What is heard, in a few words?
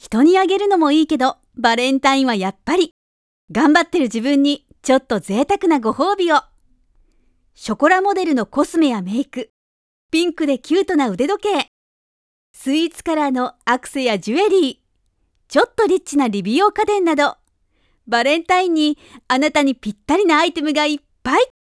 tender graceful positive